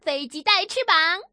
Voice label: plane wings, female